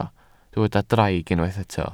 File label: "draig", Welsh